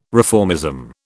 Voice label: "reformism", British